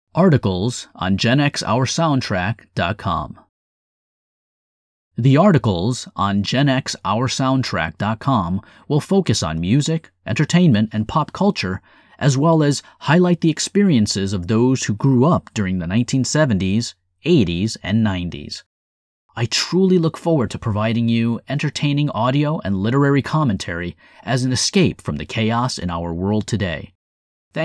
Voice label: Voiceover